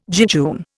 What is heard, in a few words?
"jejune", Indian